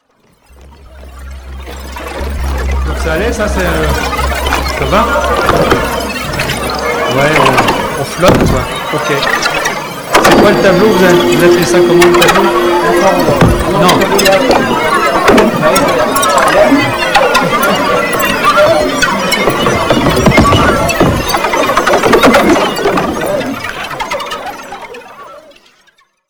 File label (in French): on flotte commentaires